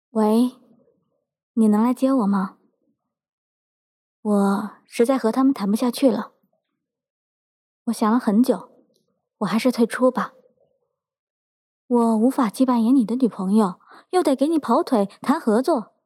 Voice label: 微电影配音

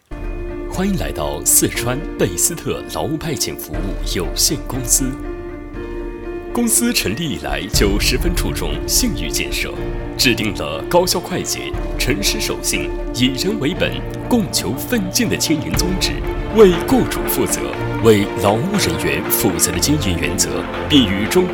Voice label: 宣传; 四川贝思特劳务派遣公司

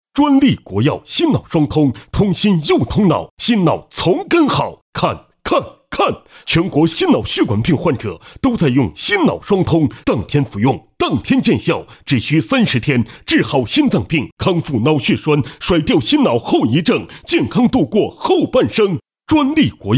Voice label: 解说; 大力丸心脑双通

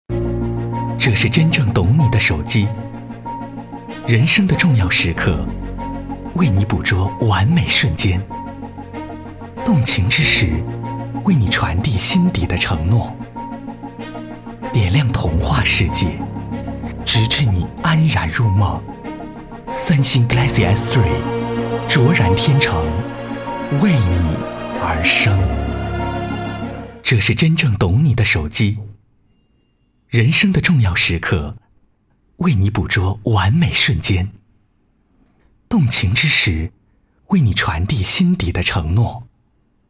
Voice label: 手机广告; 三星

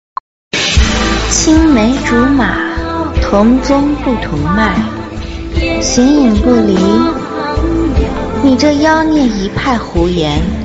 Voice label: 古风妖孽音

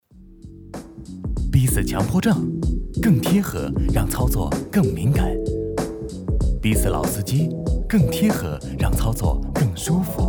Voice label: 广告年轻时尚风

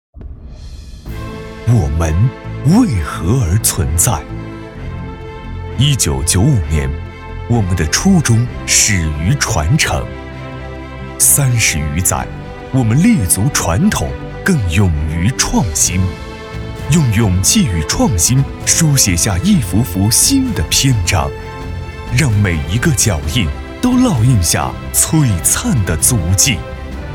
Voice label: 宣传; 太平洋国际拍卖

